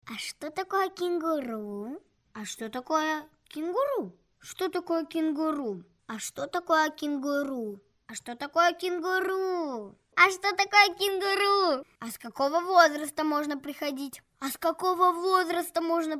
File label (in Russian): CHistyy golos